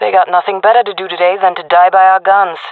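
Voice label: round start, female voice; player vo; Counter Strike Model Pack; CS:GO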